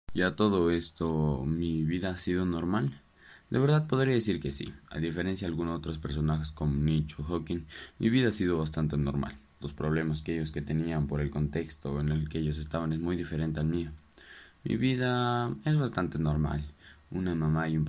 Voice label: narrativa

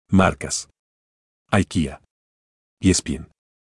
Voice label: HOMBRE

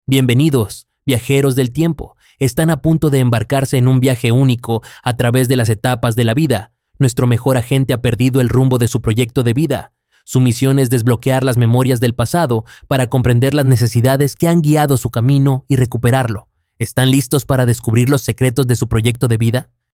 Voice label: narracion

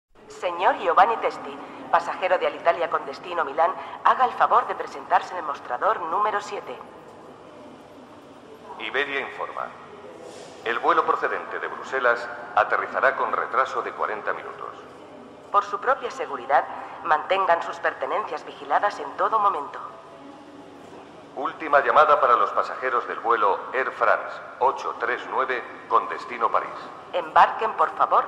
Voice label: anuncios aeropuerto

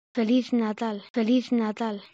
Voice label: feliz brasil portugues

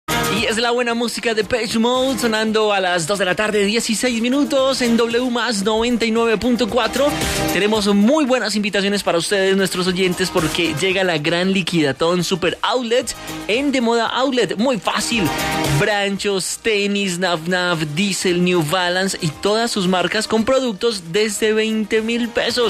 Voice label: Mención comercial radio Medellín Locutor

